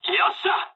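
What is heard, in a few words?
bomb success mood low, speech japanese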